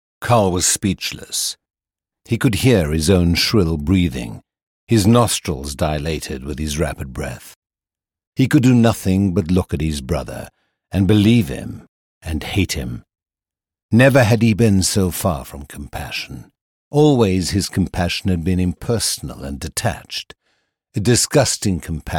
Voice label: Audiobook British